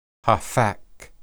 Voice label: "haphak", Hebrew